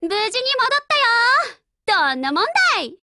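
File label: OPERATION OVER, Japanese, Voice; Lusa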